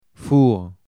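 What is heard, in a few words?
"four", French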